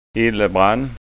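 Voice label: "illebrann", Scanian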